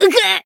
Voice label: damaged, jp; esta